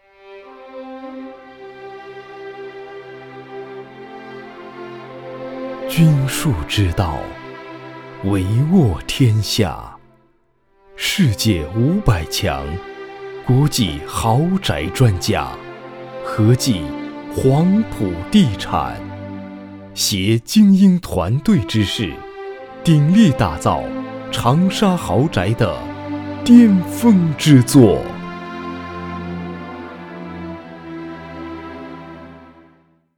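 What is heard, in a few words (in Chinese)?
大气厚重推荐地产; 黄埔地产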